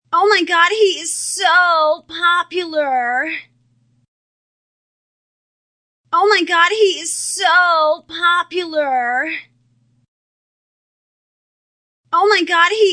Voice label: Female Says OMG He's So Popular Ringtone, Human Vocal Ringtone; Ringtones; Human Vocal Sound Effects